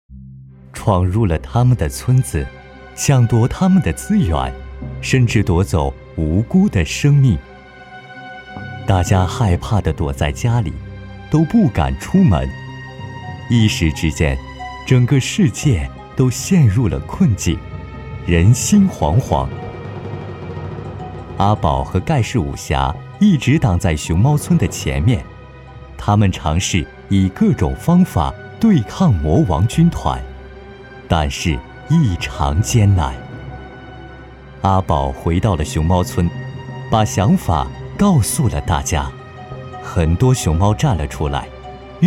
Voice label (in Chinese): 动画 旁白; 熊猫军团